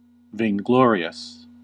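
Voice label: "vainglorious", US English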